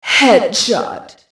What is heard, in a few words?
headshot, quake female; csgo